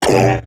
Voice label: Pain, Robot Large; Warframe; Eximus Corpus Robotic Large; Battle Barks